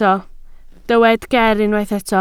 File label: "ger", Welsh